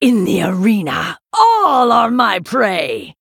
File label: Female Night Elf Emote